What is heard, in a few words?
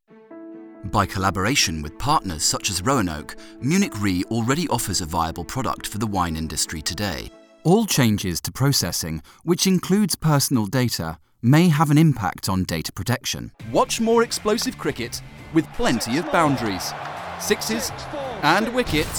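English British, male, various; showreel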